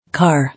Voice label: "Car", English